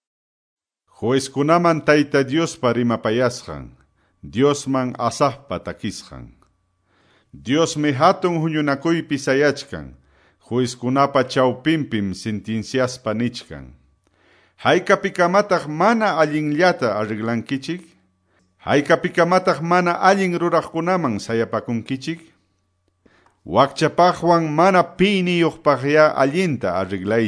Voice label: salmos, quechua ayacucho